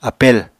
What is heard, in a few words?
"apel", apatani